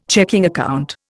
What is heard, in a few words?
"checking account", Indian